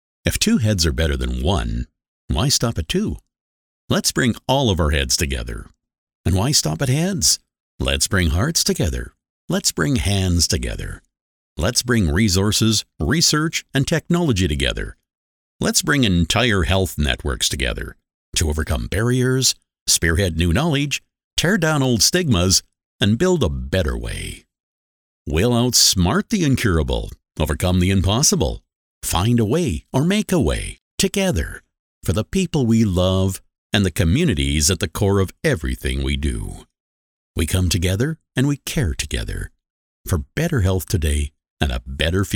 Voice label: english american corporate videos